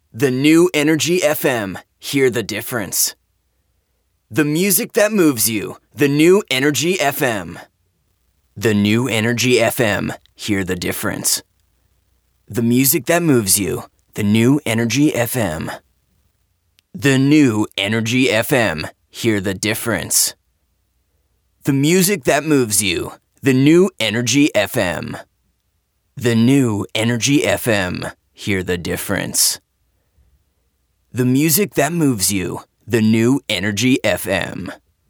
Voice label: Radio Imaging

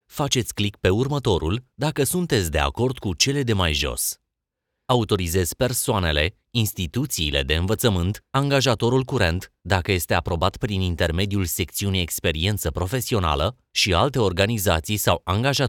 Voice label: Educational

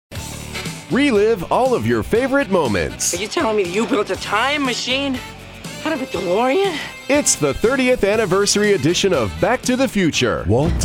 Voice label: Short Movie Trailer

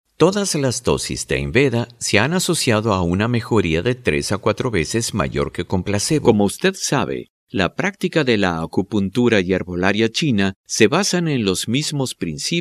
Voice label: eLearning mixed

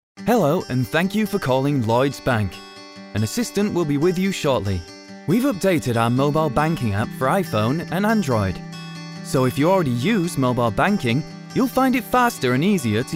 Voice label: On Hold; Lloyds Bank

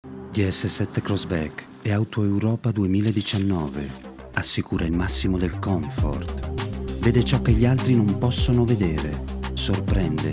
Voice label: ITALIAN MALE AUTOMOTIVE COMMERCIAL

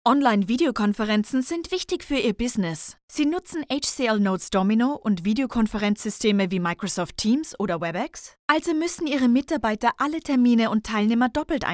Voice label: Corporate German

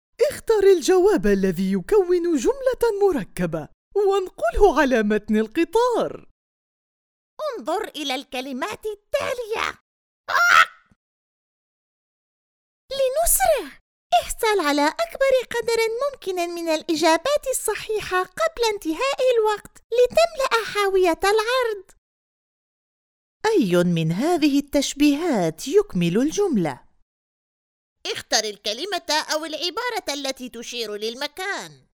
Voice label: Character voices

